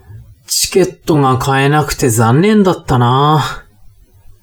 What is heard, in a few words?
man